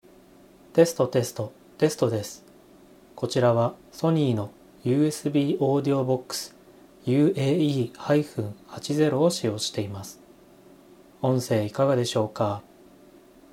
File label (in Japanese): ソニー UAB-80 high